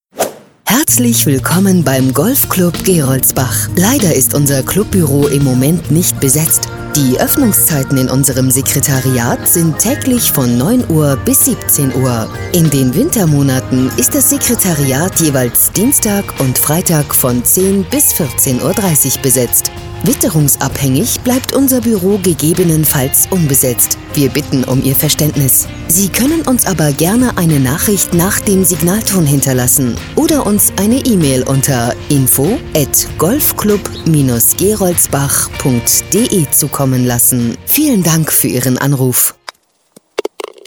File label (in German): Telefonansage; Golfclub Gerolsbach